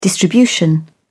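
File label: "distribution", British English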